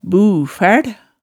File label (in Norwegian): "bufæl", hemsedalsmaalet tale